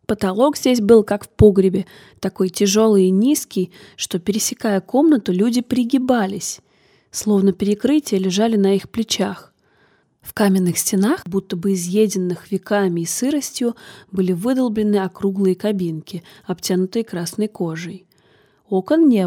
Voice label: simple rus